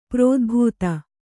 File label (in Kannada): "ಪ್ರೋದ್ಭೇದ", Kannada